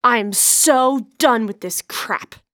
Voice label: Waitress Anger; zps